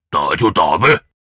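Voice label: Peon warcry, Chinese; 苦工; 魔兽争霸3; 兽人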